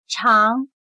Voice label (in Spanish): "常", Chino